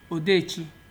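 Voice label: "odechi", Nigerian Pidgin, male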